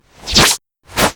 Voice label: threaten